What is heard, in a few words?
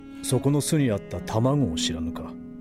"tamago", Japanese